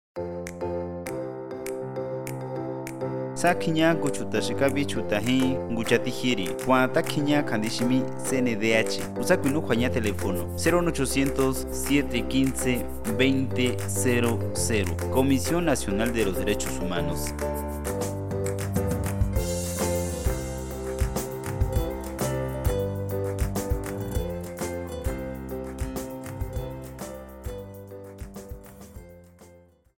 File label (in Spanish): Spot, mazateco; Campanas; CNDH